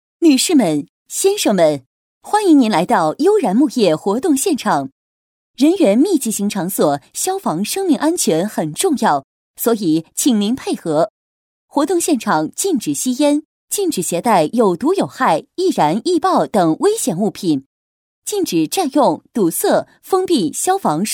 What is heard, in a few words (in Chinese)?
专题 现场提示活动 女 成熟稳重